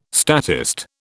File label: "statist", British